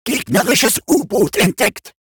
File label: robot Detection Enemy Sub; World Of Warships